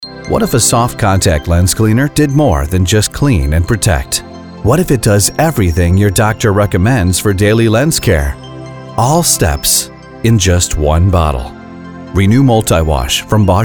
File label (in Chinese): 外籍男, 商业广告, Commercial; Bausch-and-Lomb